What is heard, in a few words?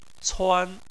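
"cuan" first tone, Chinese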